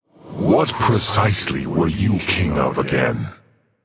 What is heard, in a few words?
taunt directed; smite; agni; zeus